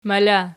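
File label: "mala" nominative, Archi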